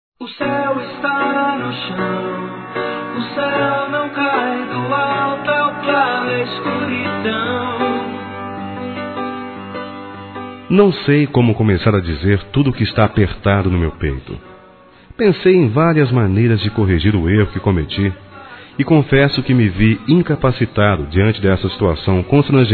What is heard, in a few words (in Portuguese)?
Desculpas Geral Masculino, Telemensagens; Telemensagens 2017